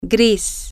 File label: grey, spanish, F